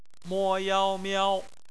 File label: "miao", Chinese; phonetics